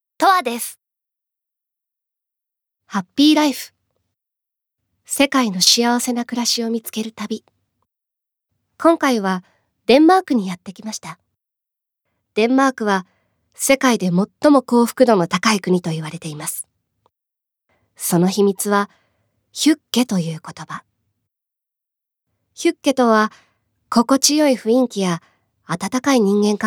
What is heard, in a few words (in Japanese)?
ナレーションALL